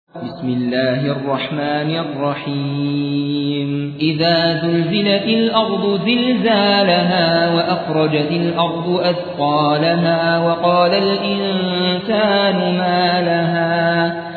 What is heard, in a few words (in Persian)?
ترتیل سوره زلزله